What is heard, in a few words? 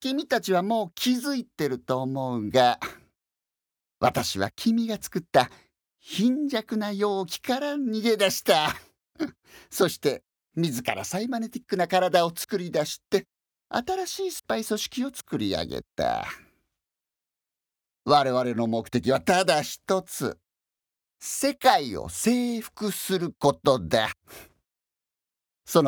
villainous cyborg